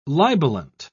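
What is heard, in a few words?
"libelant", British English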